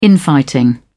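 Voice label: "infighting", British English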